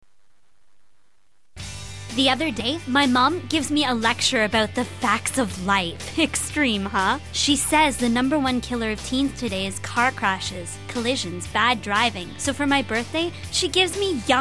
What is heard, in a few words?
Commercial